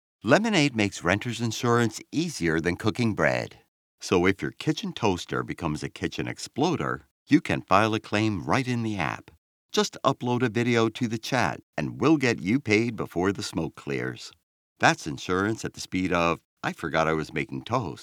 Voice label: Home Studio Sample

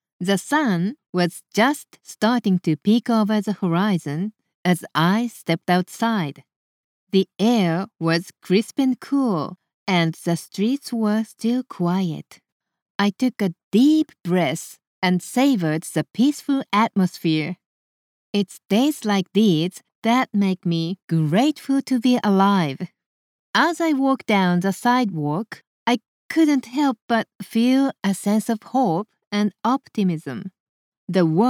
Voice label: English Sample

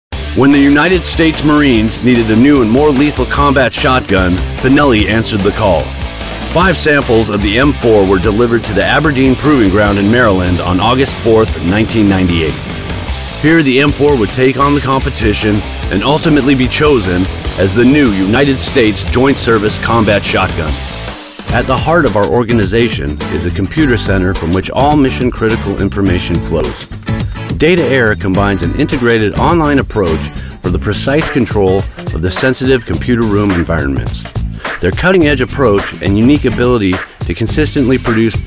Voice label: narration

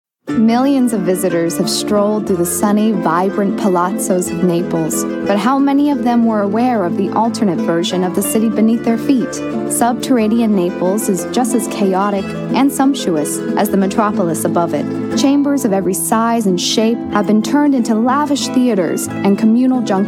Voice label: Narrative Short

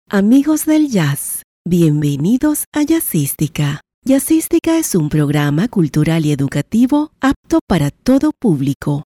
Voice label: Live Announcer

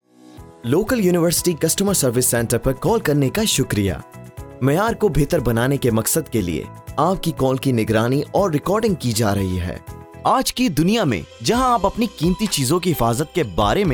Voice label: Urdu Professional Voiceover

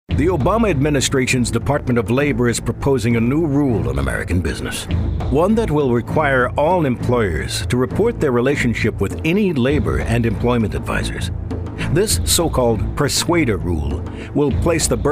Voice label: PSA; persuader rule

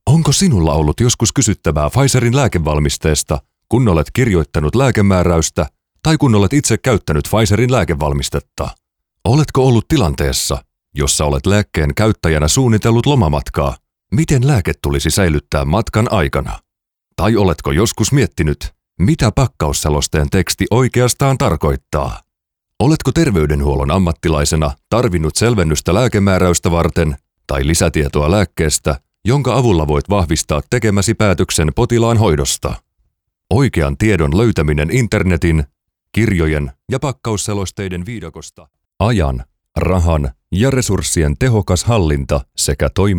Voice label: Presentation Corporate

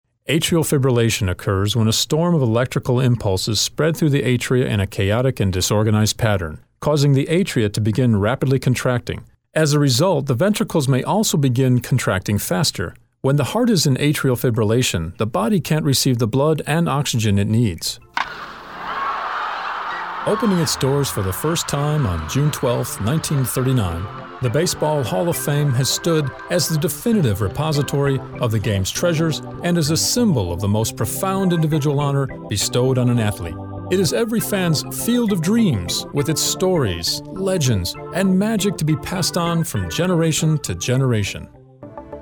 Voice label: Narration VO

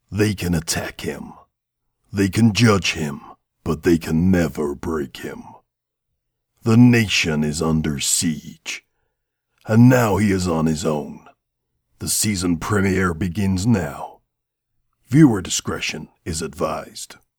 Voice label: american movie trailer

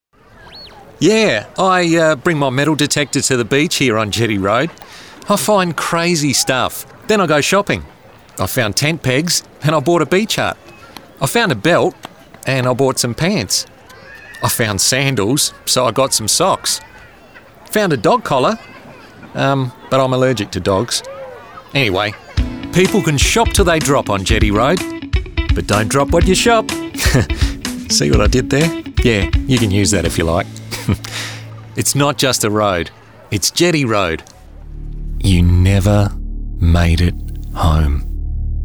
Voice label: Conversational

